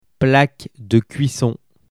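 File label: "plaque de cuisson", French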